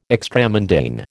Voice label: "extramundane", American

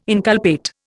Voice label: "inculpate", Indian

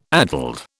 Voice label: "addled", British

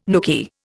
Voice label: "nooky", Indian

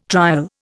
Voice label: "trial", Indian

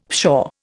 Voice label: "pshaw", Indian; Dictionary

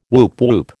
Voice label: "woop woop", American

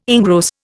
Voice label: "engross", Indian

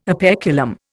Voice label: "operculum", Indian

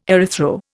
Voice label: "erythro", Indian

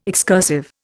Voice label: "excursive", Indian

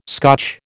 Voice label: "Scotch", American

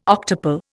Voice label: "octuple", Indian

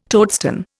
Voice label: "toadstone", Indian

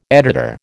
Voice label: "editor", American

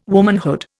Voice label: "womanhood", Indian

